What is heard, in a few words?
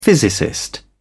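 "physicist", British English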